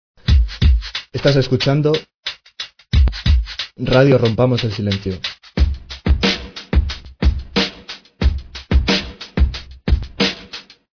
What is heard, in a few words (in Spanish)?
Estas escuchando radio hombre